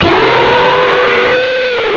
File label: vo bind